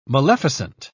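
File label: "maleficent", English, uk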